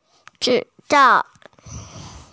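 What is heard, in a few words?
"zhi4zao4" Mandarin Chinese, female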